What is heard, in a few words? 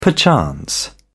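"perchance", British English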